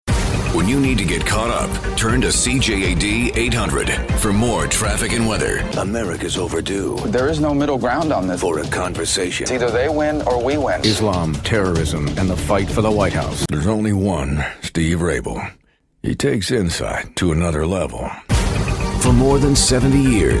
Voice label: news